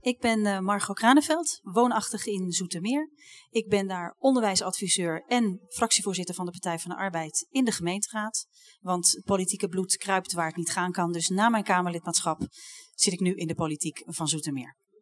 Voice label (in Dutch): voice, nl